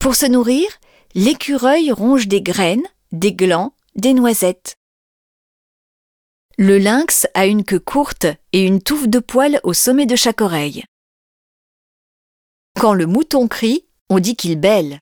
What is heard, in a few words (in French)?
balade nature voice